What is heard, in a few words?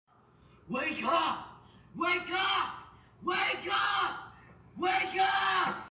yelling WAKE UP